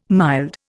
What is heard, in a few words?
"mild", Indian